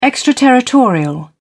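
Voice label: "extraterritorial", British English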